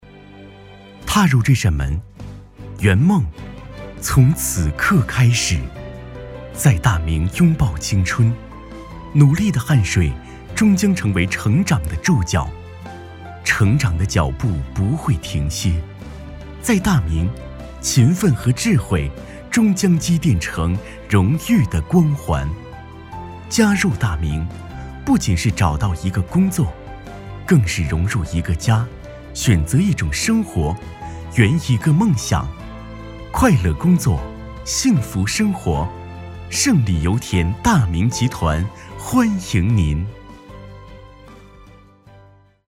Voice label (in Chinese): 宣传类 年轻 梦想 学校宣传